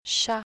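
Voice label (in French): "chat", French; ʃ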